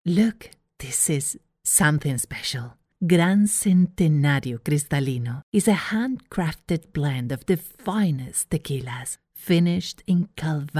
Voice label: English funny, English commercial voiceover

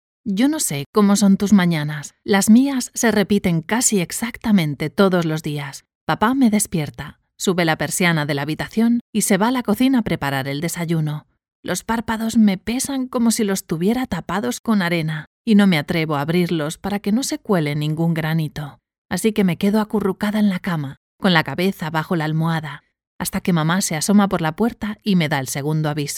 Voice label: Teenage Fiction Castilian Spanish

